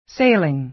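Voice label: "sailing", American English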